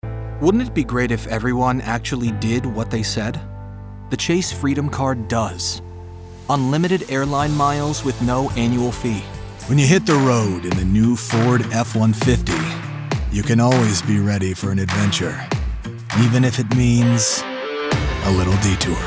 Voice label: Commercial